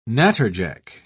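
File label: "natterjack", British English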